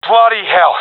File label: sees friend killed, professional epic; vo; Counter Strike Model Pack; CS:GO